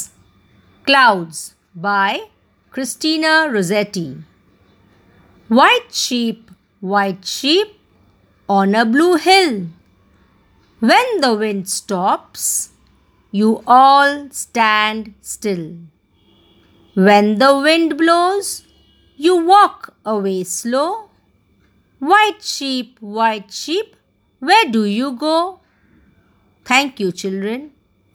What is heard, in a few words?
Recitation